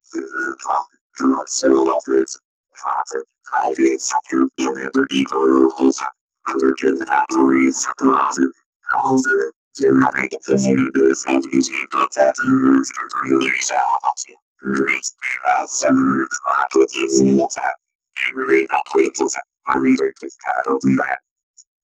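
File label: robo voice, acapella; stephen hawking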